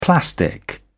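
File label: "plastic", British English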